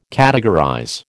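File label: "categorize", American English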